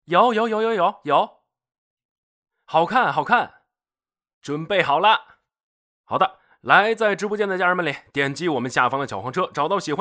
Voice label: 小助理干音

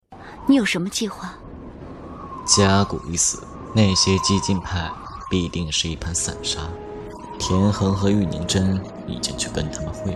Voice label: 动漫配音男单

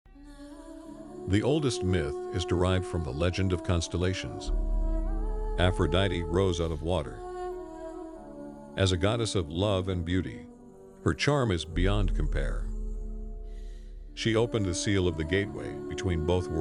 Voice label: 神话叙述神秘

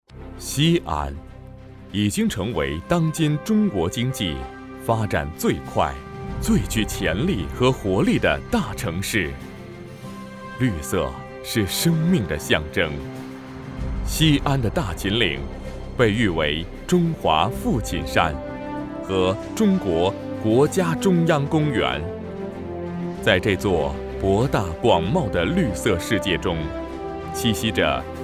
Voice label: 城市宣传; 西安

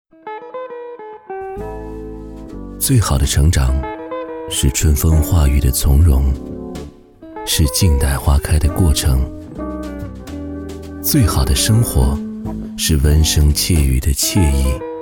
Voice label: 柔美质感; 花样年华

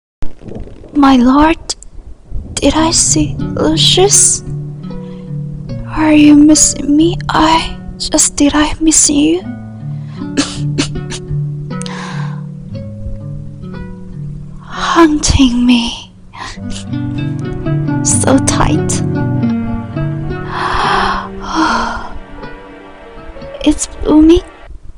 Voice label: 英文配音

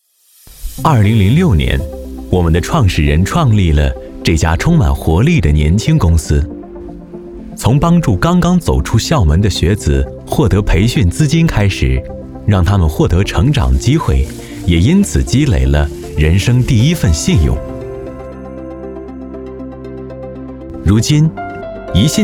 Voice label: 宣传片 金融 年轻

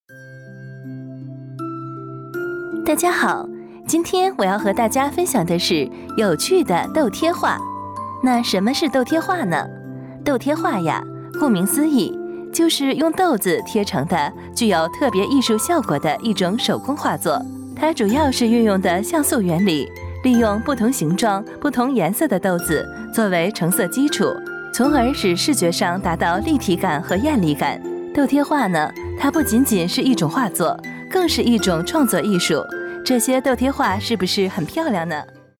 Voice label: 课件 清新自然风格; 豆贴画

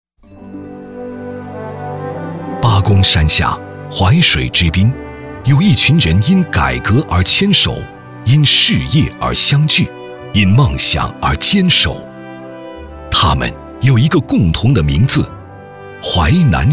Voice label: 宣传片大气宣传片; 税务人

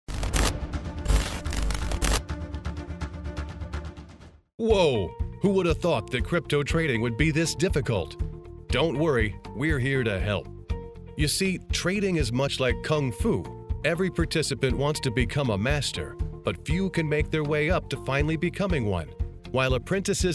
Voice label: 解说

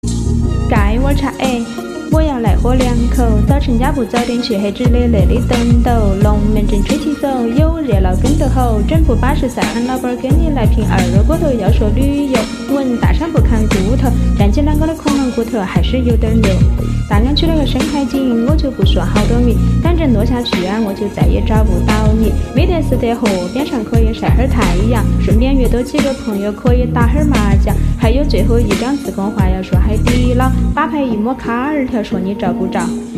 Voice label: 自贡 方言

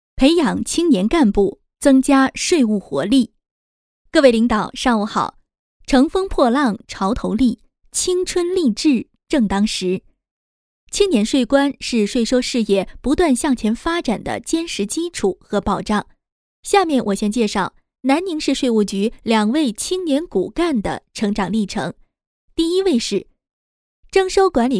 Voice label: 宣传片 人物 解说 温情 自然 情感; 青年税官

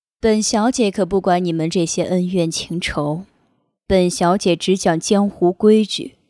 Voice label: 御姐音

